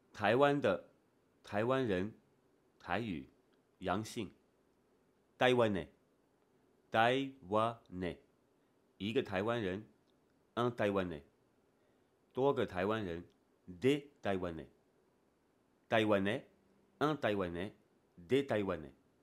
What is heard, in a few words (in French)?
Taiwanais, 台語／台灣人 陽性